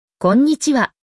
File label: "konnichiwa", japanese